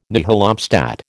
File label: "nihil obstat", American